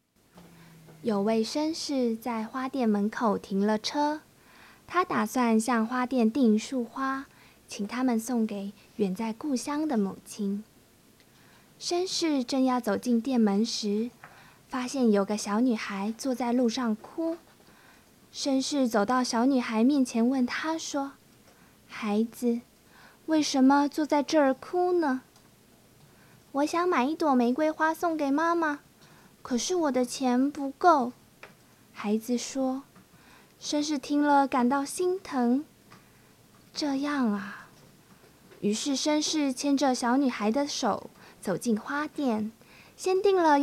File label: story